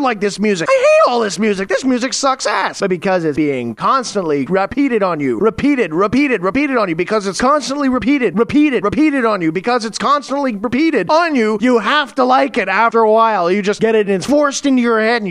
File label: Radio Solo